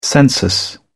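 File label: "census", British English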